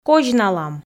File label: "козьналам", Komi